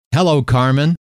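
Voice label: "hello", male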